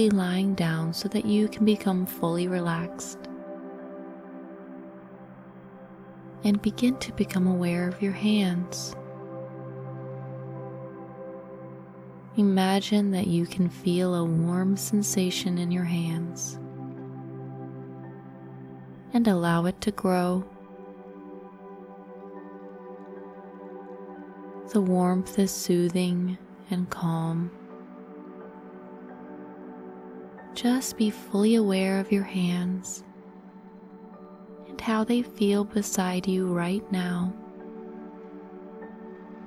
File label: Guided Meditation For Loving Kindness